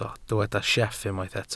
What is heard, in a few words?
"sieff", Welsh